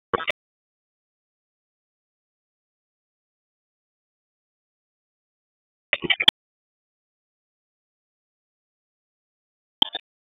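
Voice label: Raleigh Police Northeast District Tactical; wake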